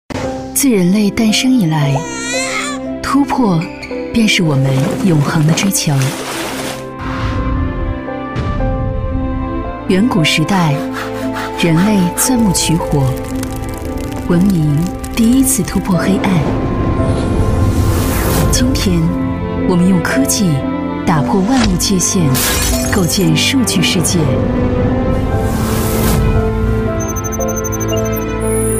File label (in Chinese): 女 广告配音 大气科技; 大气浑厚; 新环科技